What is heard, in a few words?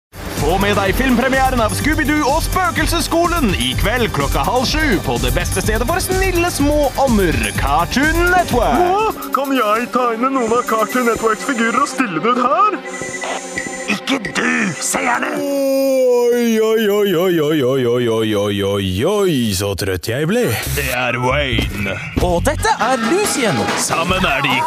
norwegian animation